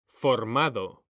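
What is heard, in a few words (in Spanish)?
"formado", Spanish; mekanta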